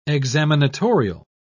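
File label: "examinatorial", British English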